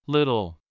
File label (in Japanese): アメリカ英語 little